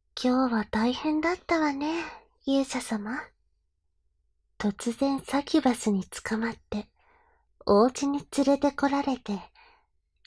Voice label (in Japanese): ピロートーク; 効果音なし